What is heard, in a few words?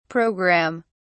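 "program(me)", British English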